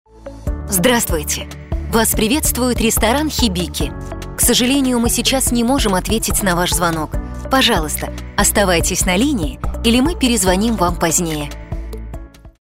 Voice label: Запись автоответчика ресторана; ДИКТОРЫ ДЛЯ IVR

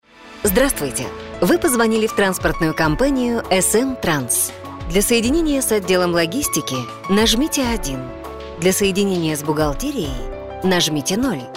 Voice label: Ивр транспортно-логистической компании; ДИКТОРЫ ДЛЯ IVR

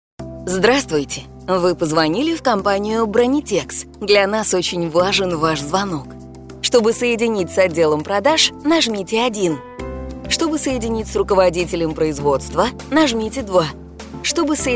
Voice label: Озвучка номера компании по бронированию; ДИКТОРЫ ДЛЯ IVR

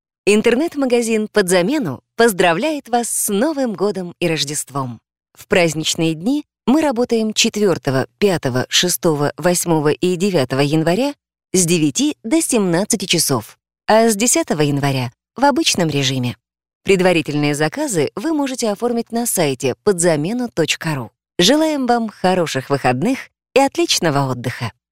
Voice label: Запись диктора для интернет-магазина; ДИКТОРЫ ДЛЯ IVR

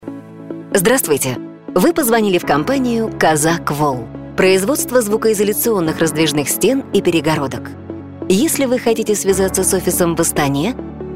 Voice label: Голосовой секретарь для офиса; ДИКТОРЫ ДЛЯ IVR

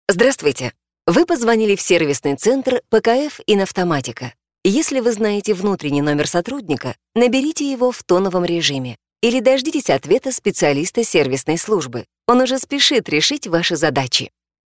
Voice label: Озвучка для телефона сервисного центра; ДИКТОРЫ ДЛЯ IVR

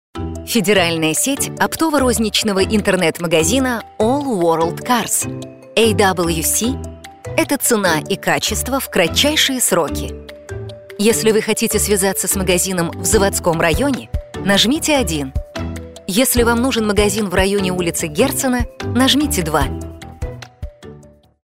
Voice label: Приветствие IVR для магазина запчастей; ДИКТОРЫ ДЛЯ IVR

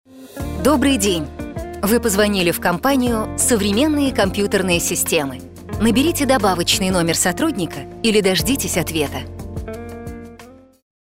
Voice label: Голосовое приветствие компьютерные системы; ДИКТОРЫ ДЛЯ IVR

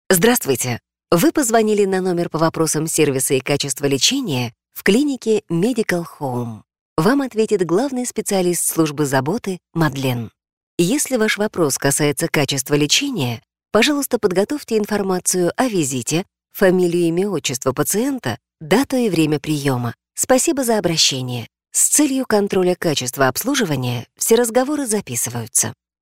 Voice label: Голосовой помощник на номер клиники; ДИКТОРЫ ДЛЯ IVR

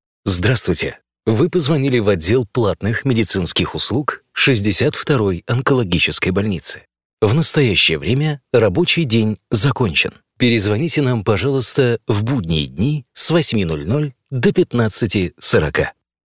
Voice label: Запись речевого сообщения для больницы; ДИКТОРЫ ДЛЯ IVR

